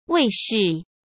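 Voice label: "卫视" Mandarin Chinese; hsk; chinese-flashcards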